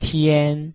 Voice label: "tian1", Chinese